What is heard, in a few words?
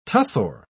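"tussore", English, British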